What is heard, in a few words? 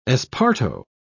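"esparto", British English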